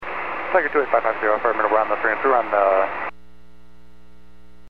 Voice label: Aviation 122.7; KSTE